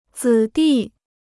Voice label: "子弟", Chinese

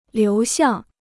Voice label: "流向", Chinese

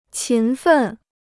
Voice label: "勤奋", Chinese

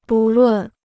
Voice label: "不论", Chinese

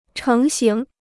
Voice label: "成型", Chinese

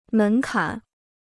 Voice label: "门槛", Chinese; mén kǎn; doorstep; sill

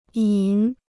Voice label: "瘾", Chinese

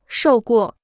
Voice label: "受过", Chinese

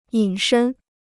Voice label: "隐身", Chinese

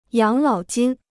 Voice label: "养老金", Chinese

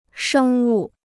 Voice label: "生物", Chinese

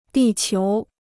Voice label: "地球", Chinese

